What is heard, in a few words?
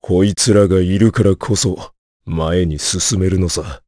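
vox Japanese; Gau; kingsraid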